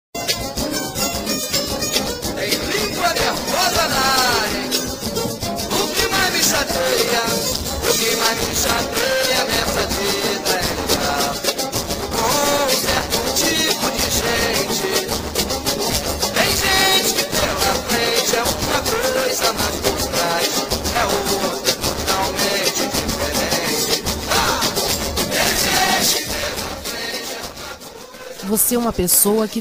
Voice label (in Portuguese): TELEMENSAGEM PARA FOFOQUEIRO, Fem; duBroncas